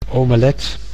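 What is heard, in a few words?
"omelet", Dutch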